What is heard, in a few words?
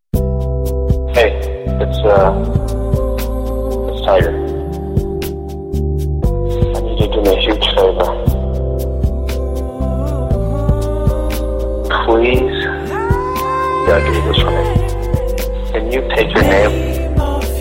Voicemail Remix